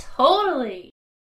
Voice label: Totally Valley Girl